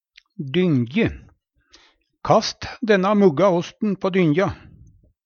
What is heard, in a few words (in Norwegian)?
"dynje", Numedalsmål